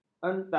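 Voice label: "entah", Malay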